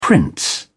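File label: "prince", British English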